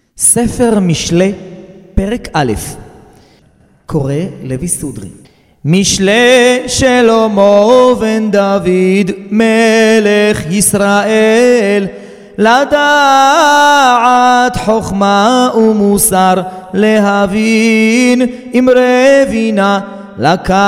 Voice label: recorded tanach mishlei sefardi moroccan